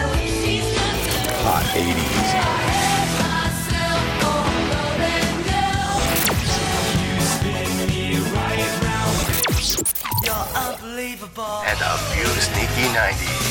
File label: Internet-radio-imaging-Turbo80s-sweepers